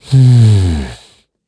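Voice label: Vox think, korean; Clause ice; kingsraid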